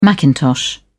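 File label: "macintosh", British English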